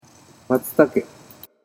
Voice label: "matsutake", Japanese